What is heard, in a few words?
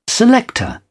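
"selector", British English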